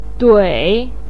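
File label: "dui" third tone, Mandarin Chinese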